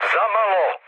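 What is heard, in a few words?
Serbian bomb failure mood med, speech; War Thunder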